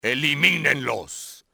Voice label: take them out, spanish soldier; XBOX

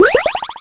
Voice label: Exclamation; Finding Nemo; XBOX

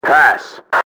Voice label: pass, enemy english team orders; XBOX

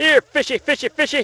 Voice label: fishy, positive; Splashdown; XBOX

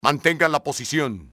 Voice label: hold position, spanish soldier; enemy; XBOX

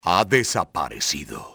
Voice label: disappeared, spanish soldier; enemy; XBOX

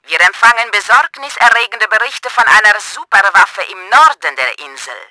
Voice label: BIG GUN, german; Battle Engine Aquila

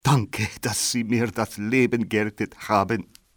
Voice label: thank, civ male; Soldier Of Fortune II Double Helix; XBOX

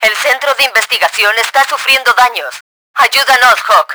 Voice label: research hit, Spanish; Battle Engine Aquila; XBOX

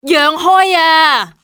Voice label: coming thru, civ fmale; XBOX

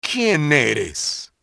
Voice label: who are you, spanish soldier; enemy; XBOX

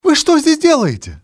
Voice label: what are you, male; XBOX; civ

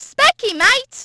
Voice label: specky, Brit; Splashdown; XBOX

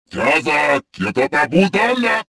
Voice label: suspicious; XBOX

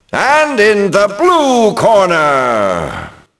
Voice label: announce, ENGLISH; Rocky; XBOX

